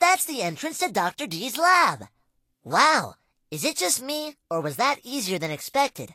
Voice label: English Voice